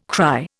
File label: "cry", Indian